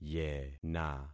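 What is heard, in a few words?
"yena", concatenated syllables; Affixing experiment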